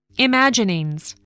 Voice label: "imaginings", voice spelling